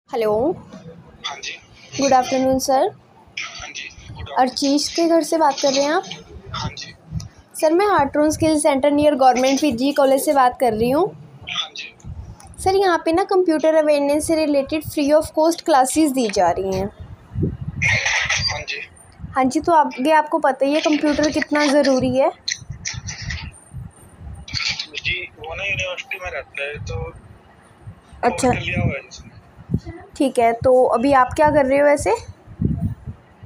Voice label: call recording